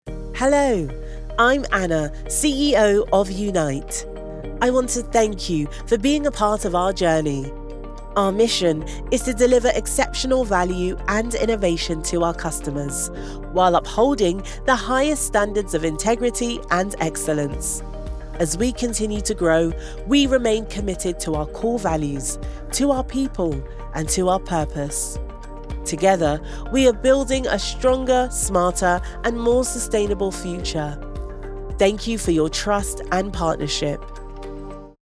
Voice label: English British explainer videos, Englisch (Britisch)